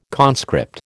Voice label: "conscript", American